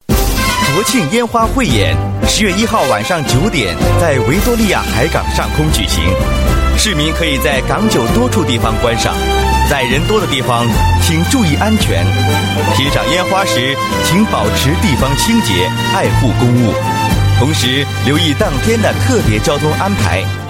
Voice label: Mandarin Voice Sample, Mandarin China Male

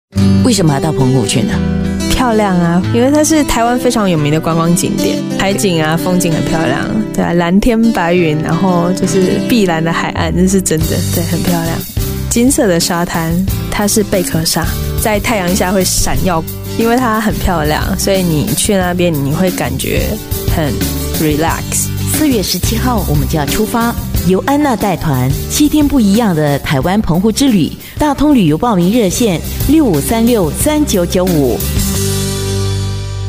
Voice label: Mandarin Jingle Voice, Mandarin China Female

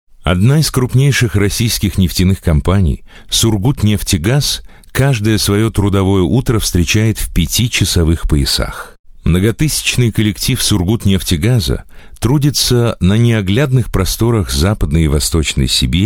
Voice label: Documentary Russian, Male

